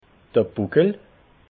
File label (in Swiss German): "buckel", alsace